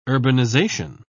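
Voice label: "urbanisation", British English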